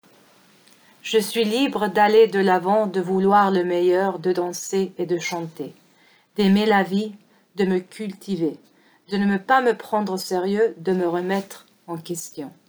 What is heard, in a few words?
french